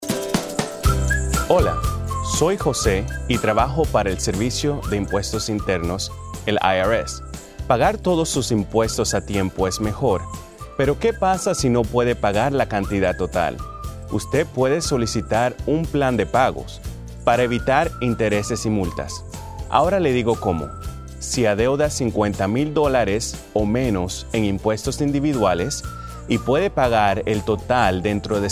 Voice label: Spanish; Online Payment Agreement